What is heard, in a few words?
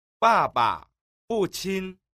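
"ba ba, fu qin", Mandarin Chinese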